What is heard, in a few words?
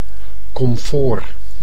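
"komfoor", Dutch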